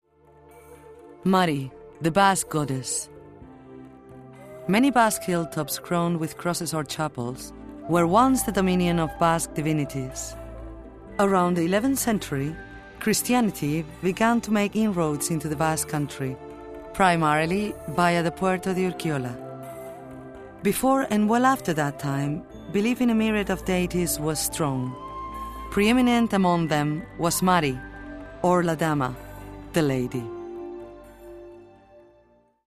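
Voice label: Spanish, English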